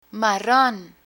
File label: "marron", Spanish; spanish colors vocabulary; learn spanish